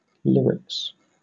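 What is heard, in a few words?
"lyrics", British English; pronunciations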